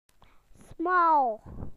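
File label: "small", British English; PictureDictionary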